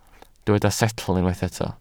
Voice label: "setl", Welsh

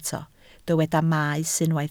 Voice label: "maes", Welsh

